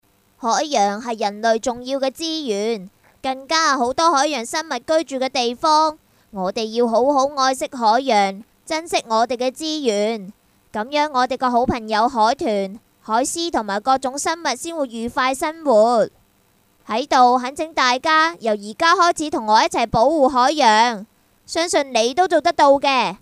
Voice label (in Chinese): 男童; 海洋